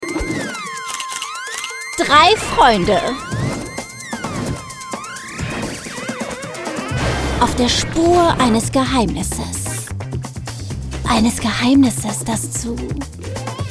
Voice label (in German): DE, voiceover; We Baby Bears without kids